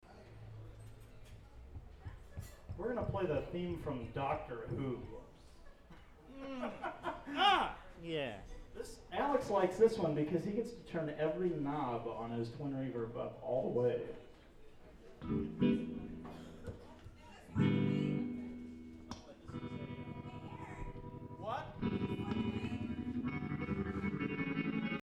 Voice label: banter; One World - December 2 2000